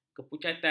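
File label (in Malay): "kepucatan", Malay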